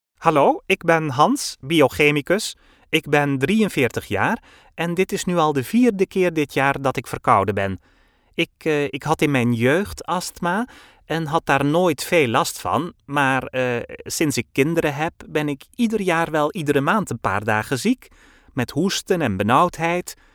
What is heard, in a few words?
character falsetto; FALSETTO NERD